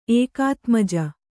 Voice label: "ಏಕಾತ್ಮಜ", Kannada; ēkātmaja

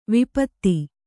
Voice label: "ವಿಪತ್ತಿ", Kannada

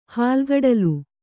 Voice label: "ಹಾಲ್ಗಡಲು", Kannada

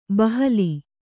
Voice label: "ಬಹಲ", Kannada

